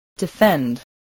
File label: "defend", English